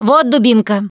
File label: melee weapons, russian, Survivor Voice Producer